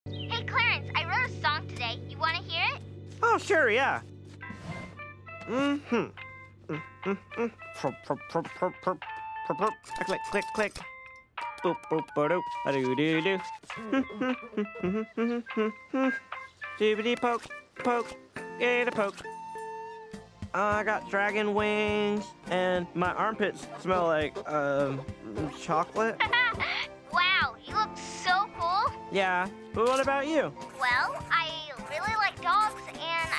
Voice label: Cartoon talking phone; تلفن حرف زدن کارتونی